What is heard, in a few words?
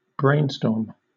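"brainstorm", British English; pronunciations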